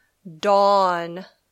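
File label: "dawn", English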